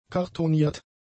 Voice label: "kartoniert", German; adjectives

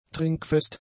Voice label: "trinkfest", German; adjectives

